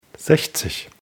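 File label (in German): "sechzig", German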